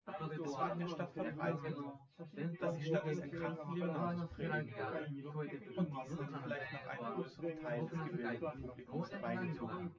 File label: male; SiSEC08; SiSEC underdetermined